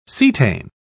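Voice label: "cetane", British English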